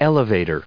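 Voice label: "elevator", English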